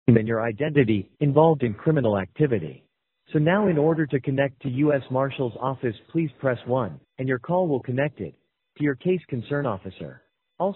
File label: Scammy phone msg